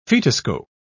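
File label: "fetoscope", English, British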